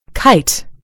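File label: "kite", US English; gcompris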